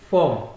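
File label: "form", Nigerian Pidgin, male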